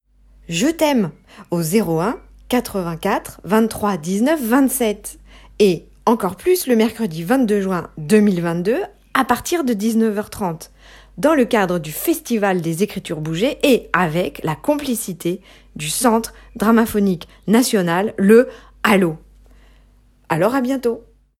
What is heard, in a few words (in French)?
message accueil teleconference